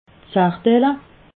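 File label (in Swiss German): "sardelle", Alsatian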